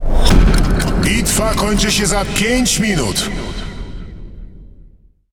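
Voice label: Speech Timer, Polish; World Of Warships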